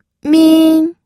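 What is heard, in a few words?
"min", first tone, pinyin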